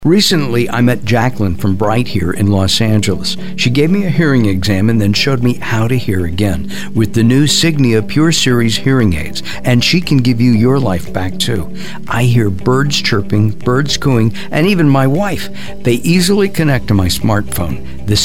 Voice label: Commercial